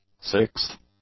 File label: "sixth", English; adjectives